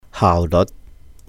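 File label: "haauh léut", Cantonese; efficiency; 效率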